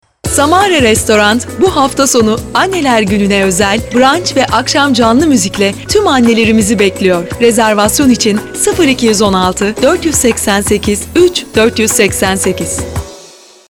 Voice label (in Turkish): sic sakin, vokal tonu sicak